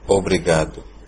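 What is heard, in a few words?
thanks, Brazilian Portuguese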